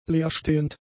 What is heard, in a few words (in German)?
"leerstehend", German; adjectives